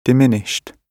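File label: "diminished", British English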